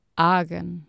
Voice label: "agan" Anglo Saxon